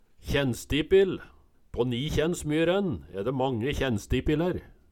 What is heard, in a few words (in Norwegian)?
kjennsdipil, Numedalsmål